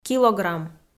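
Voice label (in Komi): "килограмм", Komi, female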